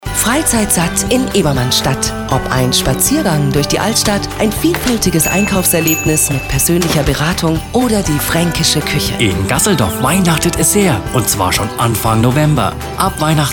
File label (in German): Spot Weihnachtsmarkt; EBS; BauSpezi